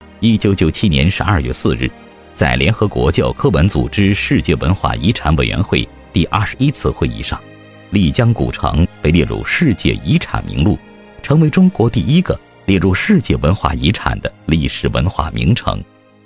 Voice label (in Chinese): 男-专题; 汇报片样音